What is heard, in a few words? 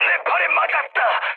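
Korean damaged pilot mood high; War Thunder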